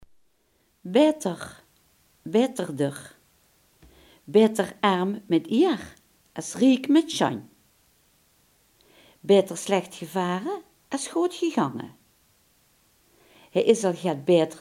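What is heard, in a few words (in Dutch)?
"beter" Limburgish dialect word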